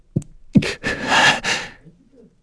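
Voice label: Vox Sad, Korean; heroes; Lusikiel; kings raid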